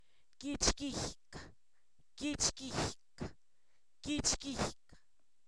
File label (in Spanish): Zapoteco, san miguel albarradas; pelo; cuerpo; INALI